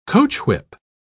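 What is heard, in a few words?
"coachwhip", English, British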